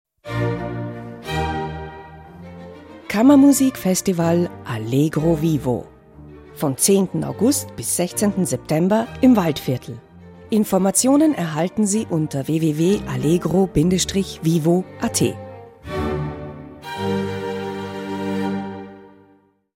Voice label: german austrian commercial; Alemão (austríaco); dubladora multilíngue

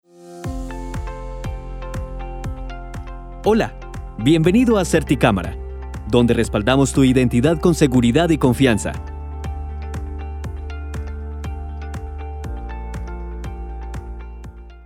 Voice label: spanish latin american ivr

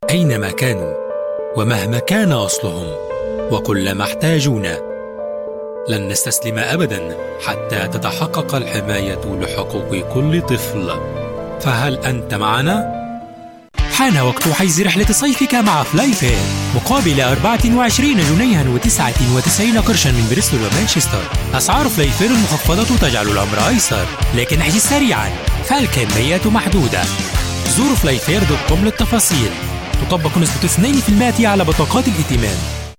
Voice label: arabic commercial; inspirational

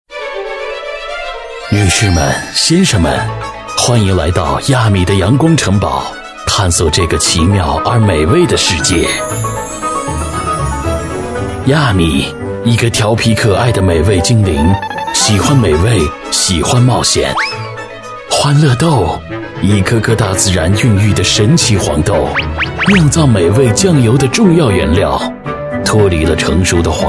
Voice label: chinese mandarin animation

